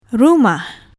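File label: "rumah", Malay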